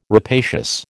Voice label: "rapacious", American